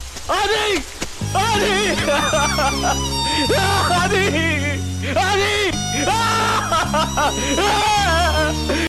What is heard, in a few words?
Meme Ringtone